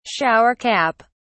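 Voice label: "shower cap", British English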